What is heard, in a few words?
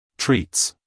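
"treats", American English, male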